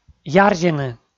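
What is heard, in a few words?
"ярзьыны", Komi, male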